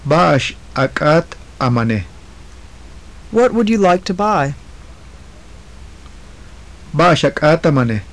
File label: phrases, maya